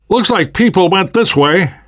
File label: world hospital, namvet, survivor voice